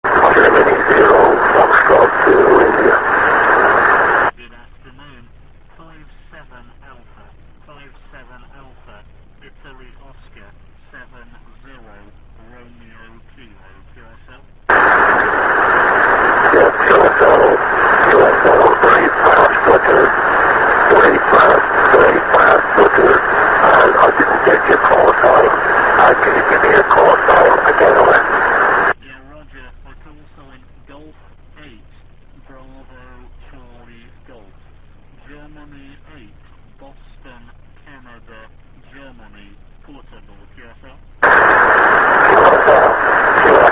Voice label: qso